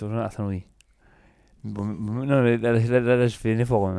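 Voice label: tongue dystonia "parole"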